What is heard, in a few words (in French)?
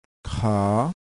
"haa", ton montant, thailandaise